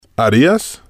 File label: "harias", spanish